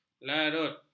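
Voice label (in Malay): "larut", Malay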